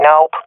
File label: negative, swat fem; Counter Strike Model Pack; CS:GO